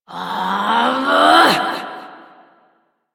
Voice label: Frustration; Warframe; Entrati Doppelganger; Evil Twin